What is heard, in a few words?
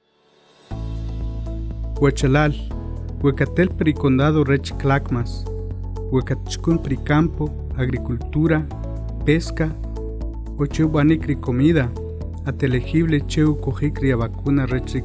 Vaccine Radio Spots, K'iche; Clackamas; covid19